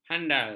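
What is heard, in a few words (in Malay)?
"handai", Malay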